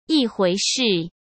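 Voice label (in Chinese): "一回事", Mandarin Chinese; chinese flashcards; hsk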